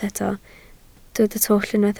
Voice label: "twll", Welsh

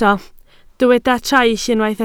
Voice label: "traill", Welsh

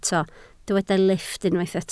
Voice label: "lifft", Welsh

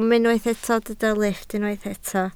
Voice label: "lifft", Welsh

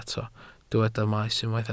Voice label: "maes", Welsh

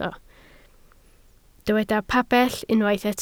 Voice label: "pabell", Welsh

